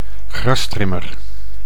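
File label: "grastrimmer", Dutch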